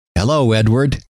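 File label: "hello", male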